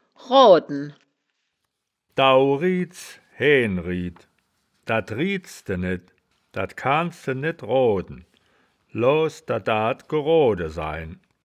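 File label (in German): "roaden", Wittlicher Wörterbuch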